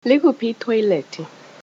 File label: toilet, zulu